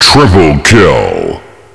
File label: kill, woman